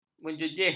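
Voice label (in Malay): "menjejeh", Malay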